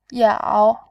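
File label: "yao", Mandarin Chinese, tone 3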